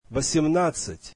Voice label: russian eighteen